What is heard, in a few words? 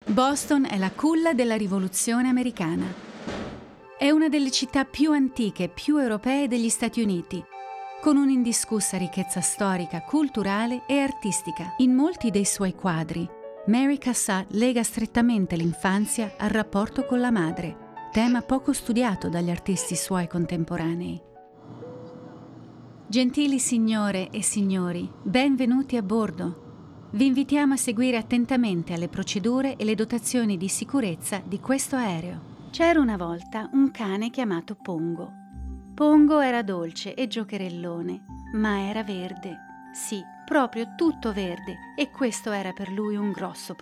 italian, international